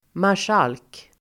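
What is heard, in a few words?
"marskalk", Swedish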